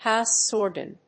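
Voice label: "House organ", English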